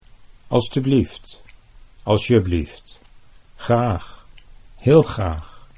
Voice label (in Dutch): "alstublieft alsjeblieft graag", Dutch